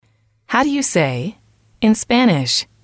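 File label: how do you say x in spanish, English, female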